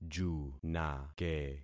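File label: "junake", concatenated syllables; Affixing experiment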